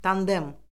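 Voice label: "tandem", Azerbaijani; Orfoqrafiya Lüğəti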